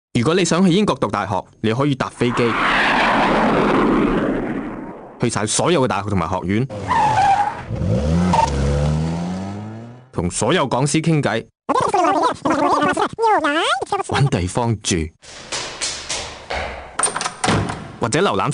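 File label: ZH Can General, Cantonese Chinese; GoLocalise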